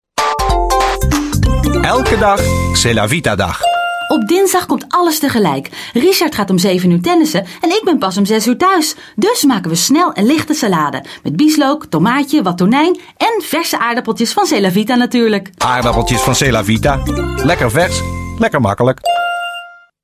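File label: Dutch, female, commercial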